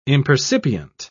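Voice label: "impercipient", British English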